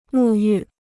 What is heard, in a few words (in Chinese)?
"沐浴", Chinese